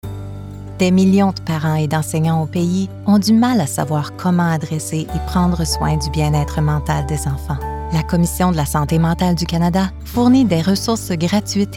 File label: Corporate, French; Fondation Canadienne Sante Mentale